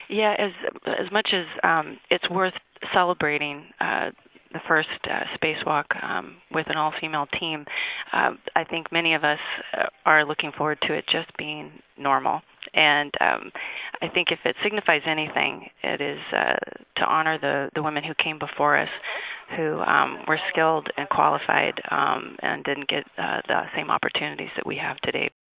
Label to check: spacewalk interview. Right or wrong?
Right